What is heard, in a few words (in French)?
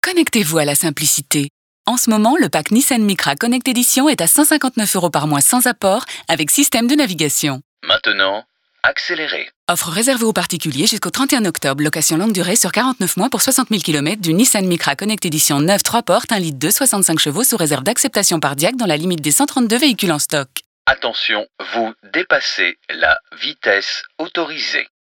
radio; Nissan